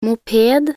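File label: "moped", Norwegian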